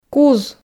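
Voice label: "куз", Komi, female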